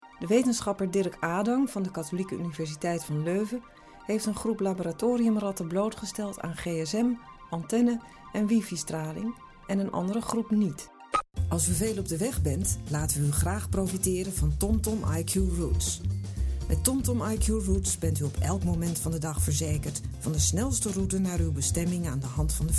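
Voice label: DUT F, Dutch female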